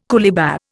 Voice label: "coolibah", Indian